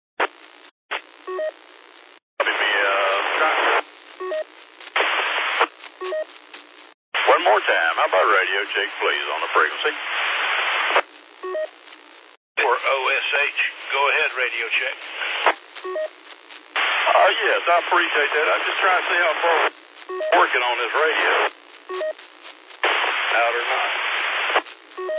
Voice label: qso rec simplex logic